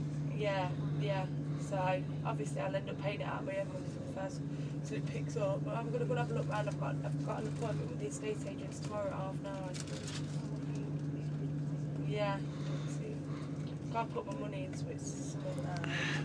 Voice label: leicester accent

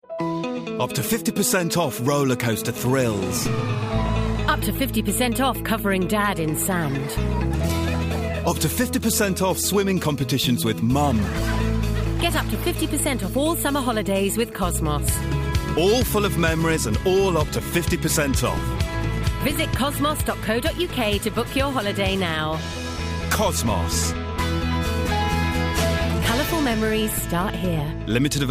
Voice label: radio ad; colourful memories

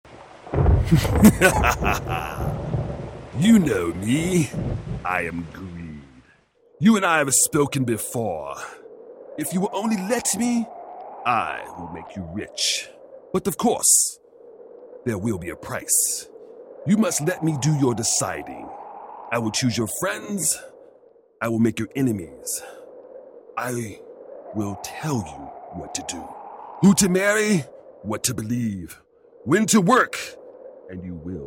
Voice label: voice over; greed

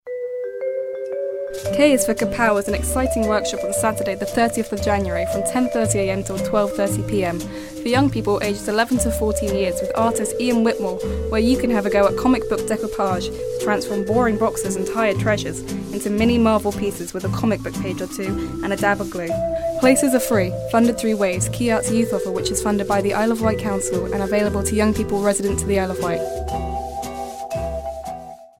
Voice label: promo

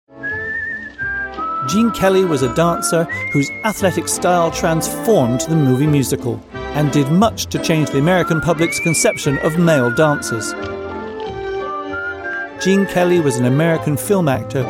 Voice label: documentary

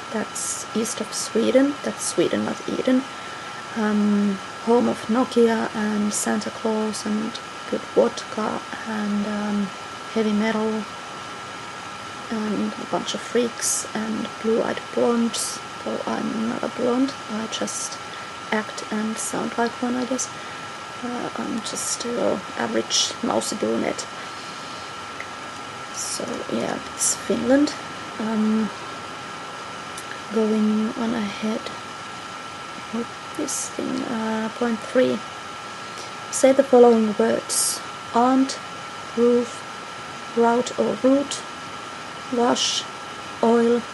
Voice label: accent

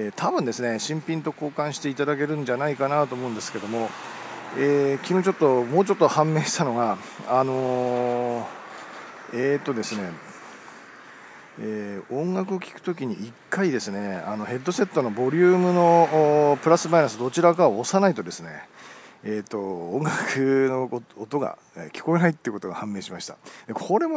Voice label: voice iphone bar

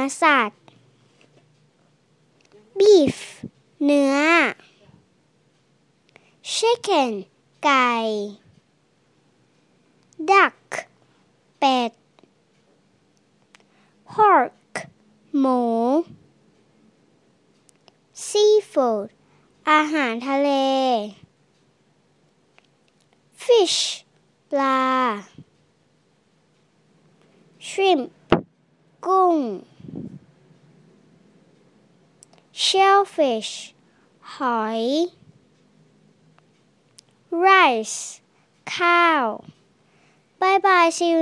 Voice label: thai phrasebook food

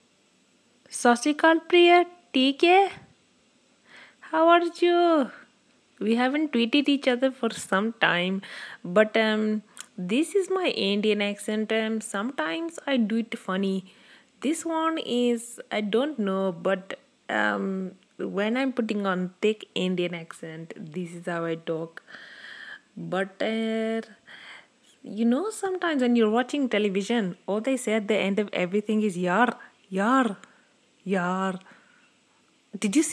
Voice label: indian accent

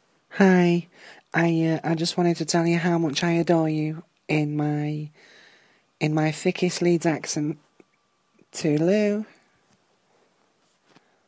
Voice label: thick leeds accent

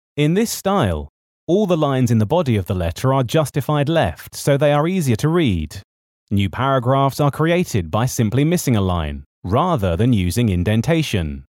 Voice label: elearning voiceovers

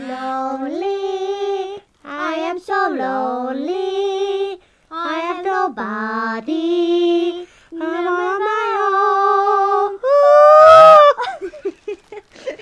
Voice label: helium

